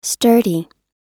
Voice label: "sturdy", us